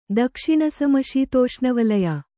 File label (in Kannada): "ದಕ್ಷಿಣಸಮಶೀತೋಷ್ಣವಲಯ", Kannada